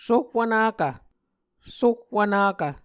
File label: "sohwvnakv", Muscogee